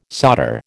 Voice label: "solder", American